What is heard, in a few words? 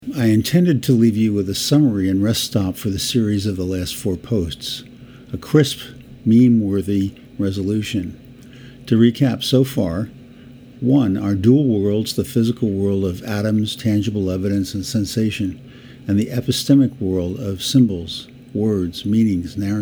voiceover